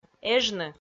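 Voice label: "эжны" Komi, female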